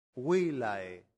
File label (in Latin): "villae", latin; santillana